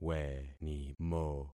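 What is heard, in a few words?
"wenimo", concatenated syllables; Affixing experiment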